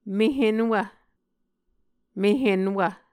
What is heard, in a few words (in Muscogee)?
"mehenwv", Muscogee